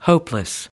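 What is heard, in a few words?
"hopeless", American English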